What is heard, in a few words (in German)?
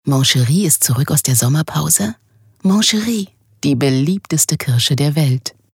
Radio Sponsoring